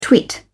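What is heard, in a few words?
"twit", English, British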